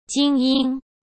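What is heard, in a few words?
"精英", Mandarin Chinese; chinese-flashcards; hsk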